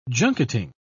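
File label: "junketing", British English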